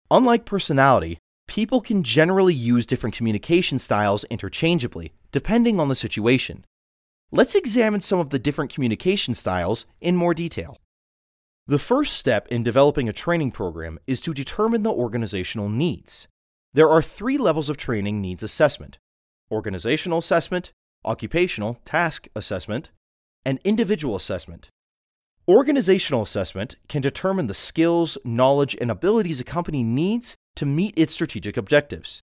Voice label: Elearning